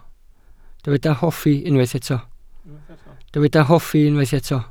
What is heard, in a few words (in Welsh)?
"hoffi", Welsh